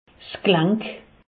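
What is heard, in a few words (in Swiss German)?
"glank", Alsatian; medecine; articulation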